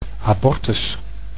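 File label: "abortus", Dutch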